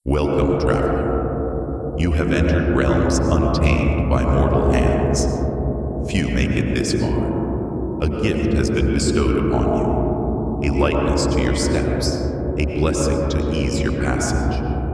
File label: narration intro; kz realmshift